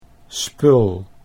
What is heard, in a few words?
"spul", Dutch